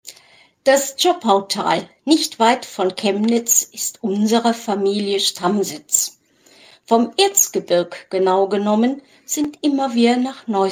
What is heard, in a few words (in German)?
Gedicht Erzgebirge